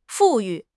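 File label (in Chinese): "富裕", Chinese; HSK6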